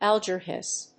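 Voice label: "alger hiss", English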